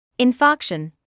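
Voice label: "infarction" English, British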